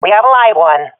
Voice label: sees one enemy, swat fem; vo; Counter Strike Model Pack; CS:GO

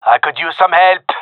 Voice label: request help, male; vo

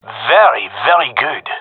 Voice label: compliment, gendarmerie male; vo

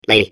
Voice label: babble; Portal 2

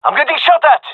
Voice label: takingfire, male; vo

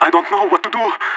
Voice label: scared emote; Counter Strike Model Pack; CS:GO; leet

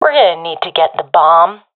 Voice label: sees dropped bomb, professional fem; vo; Counter Strike Model Pack; CS:GO

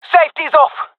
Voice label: attacking, jungle fem; vo; agents; Source Model Packs; Counter Strike Model Pack; CS2; jungle fem epic

